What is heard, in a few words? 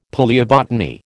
"palaeobotany", American